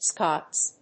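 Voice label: "Scots", English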